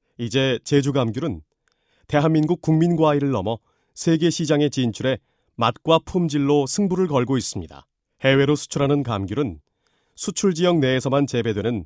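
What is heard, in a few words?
Korean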